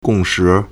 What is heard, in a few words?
"gong4shi4", Chinese